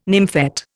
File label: "nymphet", Indian